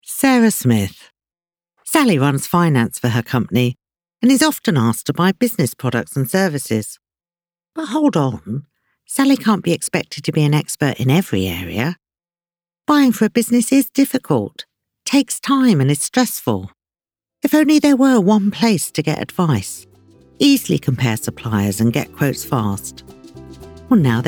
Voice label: corporate voice